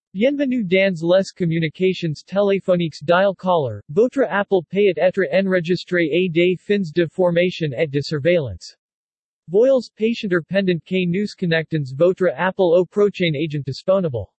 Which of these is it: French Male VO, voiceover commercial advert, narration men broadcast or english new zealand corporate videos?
French Male VO